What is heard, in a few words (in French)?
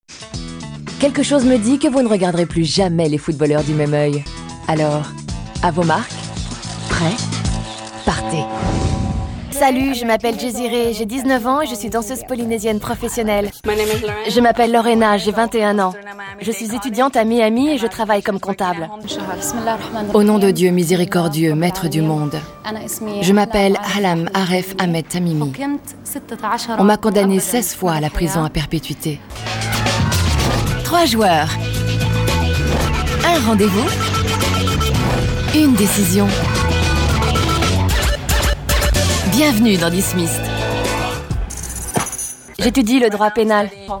VO NARRATION TV REAL ET JEUNESSE